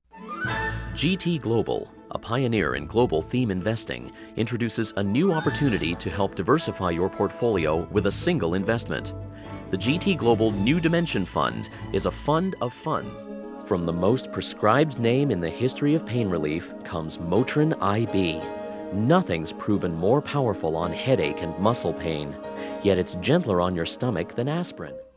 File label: Commercials